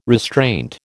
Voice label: "restraint", American